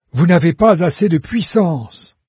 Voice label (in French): low power, VOICES fr NARRATOR; Fate